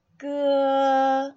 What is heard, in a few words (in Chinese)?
戈 小聲